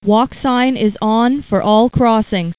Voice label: Walk Sign Is On for All Crossings (female)